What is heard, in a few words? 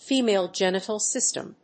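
"Female genital system", English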